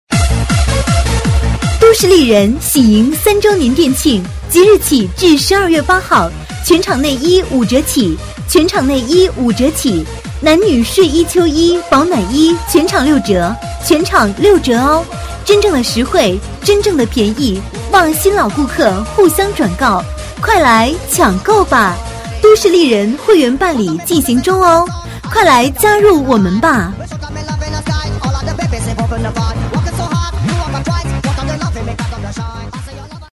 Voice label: 女, 门面促销, 国语女声; 都市丽人

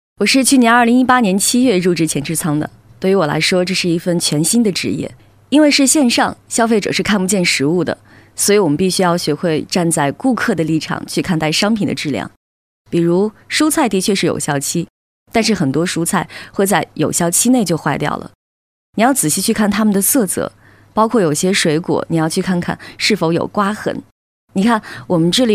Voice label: 女 口语化采访专题

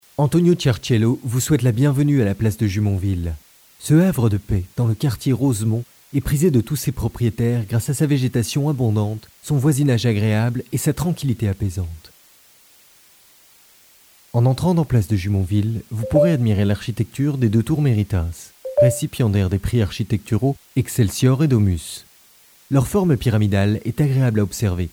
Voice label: 外籍法语男 商业广告 advertising

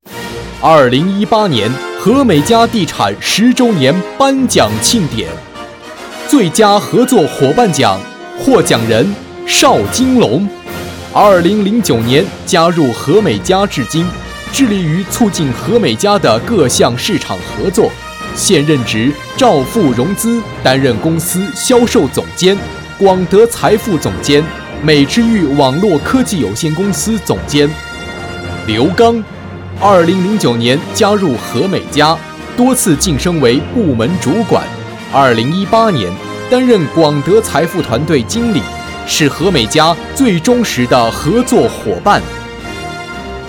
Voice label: 视频背景配音; 十年功勋人物获奖

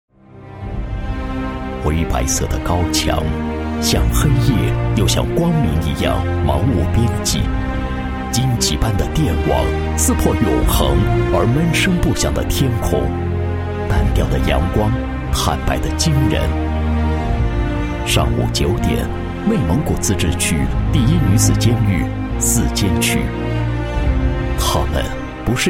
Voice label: 男 监狱; 内蒙古自治区第一女子监狱四监区工作纪

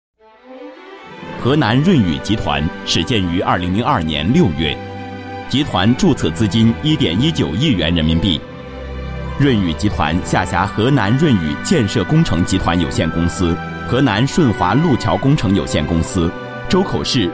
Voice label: 男 宣传片 大气正式, 国语男声配音; 河南润雨集团

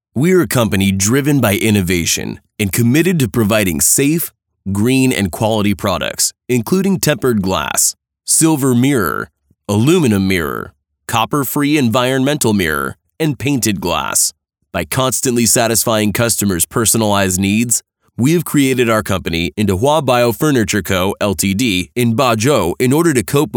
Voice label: 家具公司宣传片

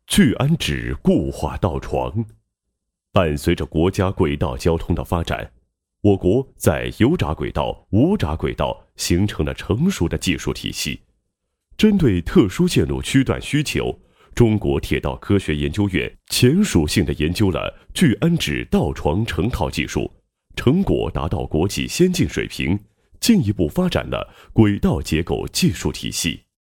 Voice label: 男 科技解说 配音; 聚氨酯固化道床成套技术

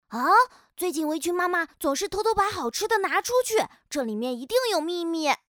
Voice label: 角色 调皮男童

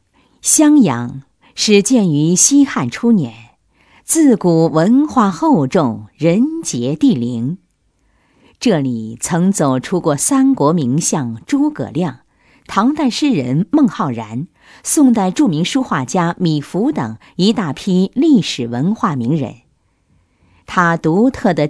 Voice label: 女, 专题, 国语女声; 襄阳城市-宣传片

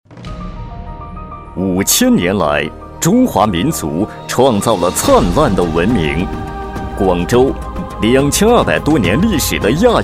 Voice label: 学校宣传, 国语男声配音, 稳重专题; 广州轻工技师学院

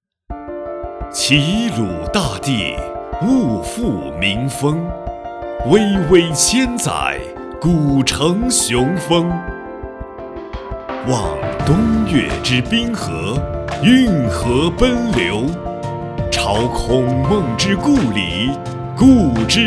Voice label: 男 专题 地区宣传 浑厚有力; 齐鲁大地

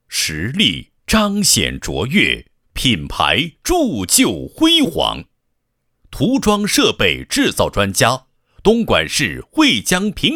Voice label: 男-制造企业宣传; 东莞市慧江平成机械

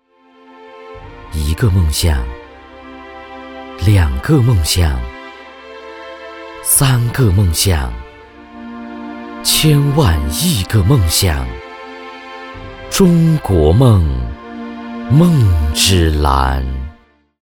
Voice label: 男 广告; 梦之蓝

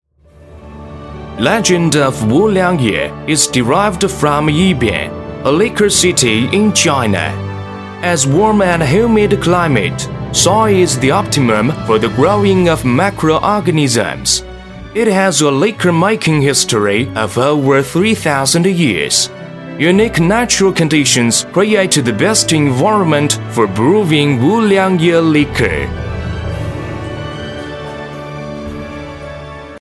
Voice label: 英男; 五粮液世博会

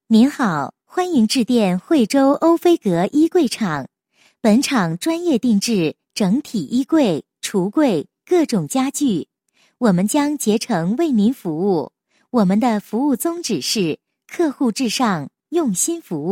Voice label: 女 彩铃, 国语女声配音; 欧菲阁衣柜厂

